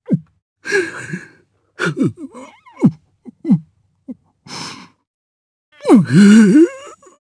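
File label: Vox Sad Japanese; Phillop; kings raid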